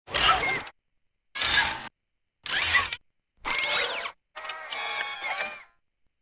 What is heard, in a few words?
male gnome